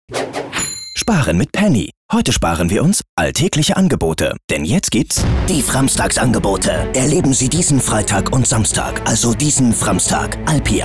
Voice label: Fremdtake Dynamisch

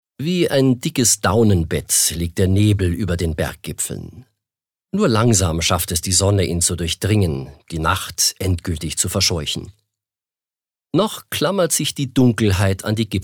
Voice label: Schauspiel Variabel

